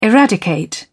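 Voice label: "eradicate", British English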